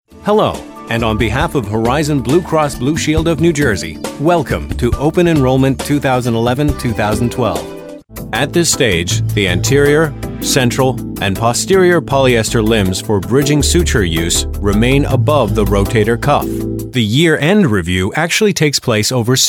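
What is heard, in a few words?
American, male, voiceovers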